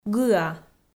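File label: "гыа", Komi, female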